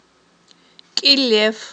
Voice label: "кIилеф", Aghul